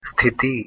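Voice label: "sthiti" Hindi, male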